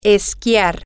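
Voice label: Spanish, to ski, female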